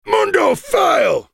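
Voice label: spellcast effort; CorporateMundo; Character Voices - English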